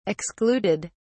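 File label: "excluded", British English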